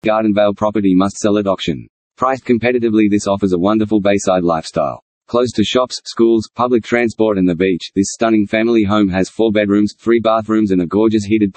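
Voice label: Australian presenter, real estate videos; My Real Estate Videos; Melbourne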